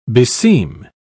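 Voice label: "beseem", British English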